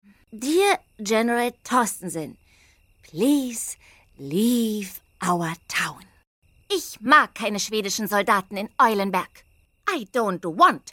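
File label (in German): Hörspiel, Englisch lernen; Das kleine Gespenst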